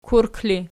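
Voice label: "kurk", ergative, Archi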